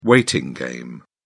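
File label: "waiting game", British English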